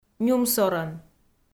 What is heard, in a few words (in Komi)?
"нюмсорӧн", Komi